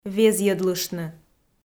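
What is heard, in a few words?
"везйӧдлыштны", Komi, female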